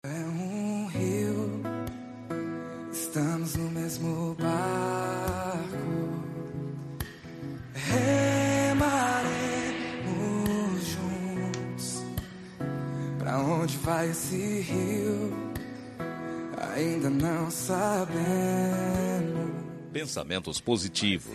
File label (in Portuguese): Telemensagem Aniversário de Pessoa Especial – Voz Masculina; Telemensagens para todas as ocasiões; niver pessoa esepcial